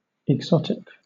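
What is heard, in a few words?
"exotic", British English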